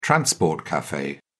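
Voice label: "transport cafe", British English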